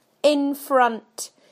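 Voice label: "in front", British English; PictureDictionary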